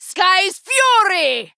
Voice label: Female Dwarf Attack